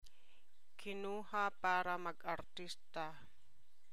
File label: Tagalog